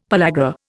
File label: "pellagra", Indian; Dictionary